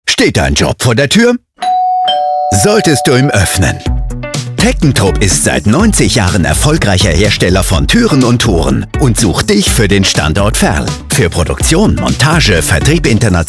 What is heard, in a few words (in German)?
Radiospot Karriere; Teckentrup